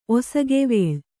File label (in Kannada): "ಒಸಗೆವೇಳ್", Kannada